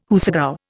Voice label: "hoosegow", Indian